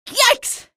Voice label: alert head, female; video games; npc; source engine; episodic; episode 1

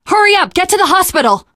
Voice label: world hospital, teen girl; survivor voice

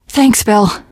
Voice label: thanks, teen girl; survivor voice

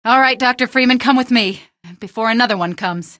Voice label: exit come with, female; Source Engine; video game; coast; barn

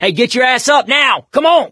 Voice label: revive friend loud, survivor voice mechanic; source engine; left 4 dead 2

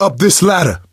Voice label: misc directional, coach, survivor voice

